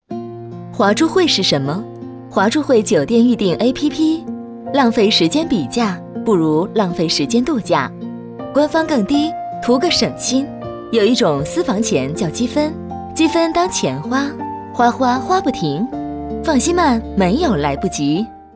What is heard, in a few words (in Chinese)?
女 广告; 华住会